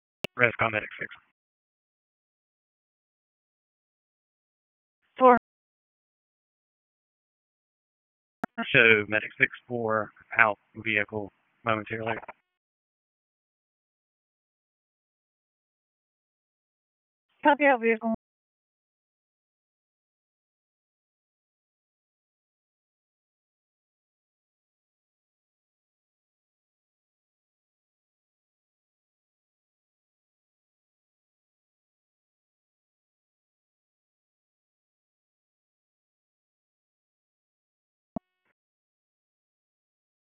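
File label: EMS Dispatch, p25; wake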